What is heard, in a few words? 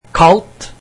cold, norwegian